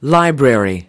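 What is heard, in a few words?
"library", English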